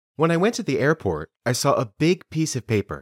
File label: Dictation